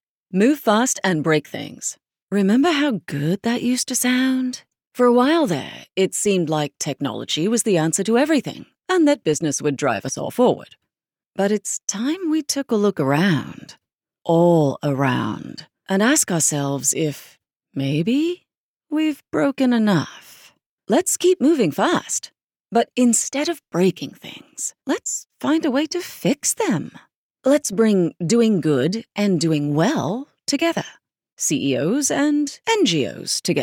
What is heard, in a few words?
UK British Conversational Brand Narration